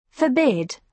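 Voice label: "forbid", British English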